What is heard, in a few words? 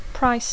"price", English